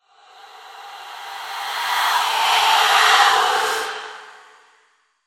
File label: Whispers Tense; Silent Hill Downpour